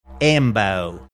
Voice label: saying ambo australian accent